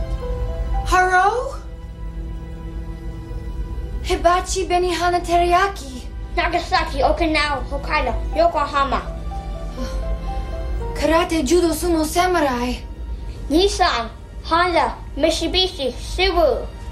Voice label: asian speech